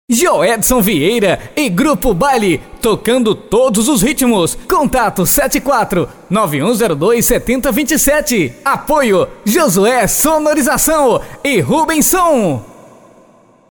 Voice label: vinheta apresentacao promocional

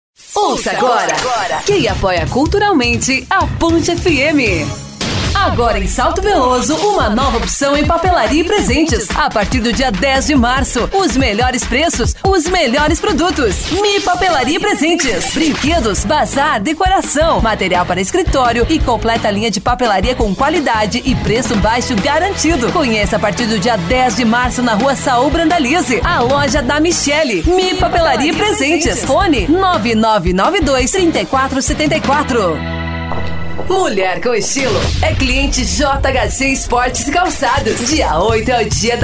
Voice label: locutora jovem padrao e varejo

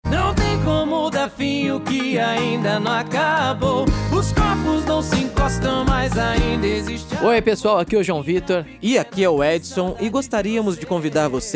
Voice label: vinheta para radio

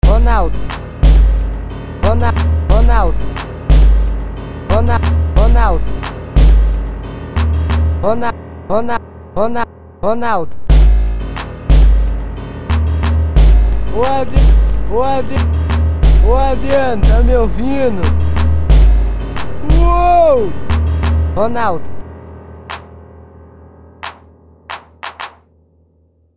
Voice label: rap

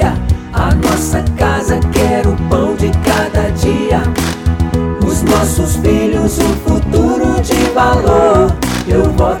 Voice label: jingle eleições vereador jovem; eleicoes 2020

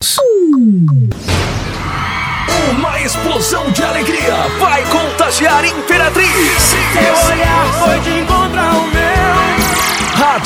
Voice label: voz masculina

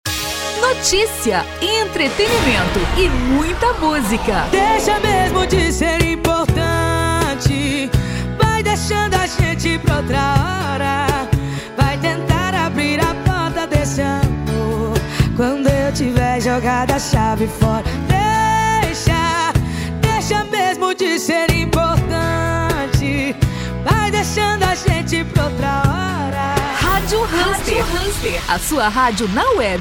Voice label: chamada radio; marilia mendonsa

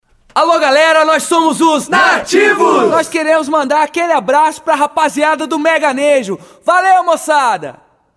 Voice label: vinheta

